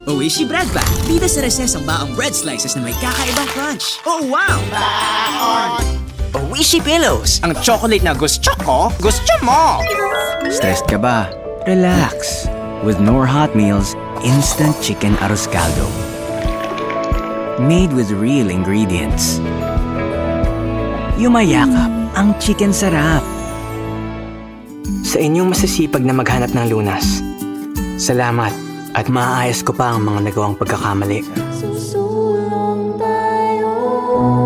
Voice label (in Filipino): Tagalog commercial; Filipinas; actor de doblaje profesional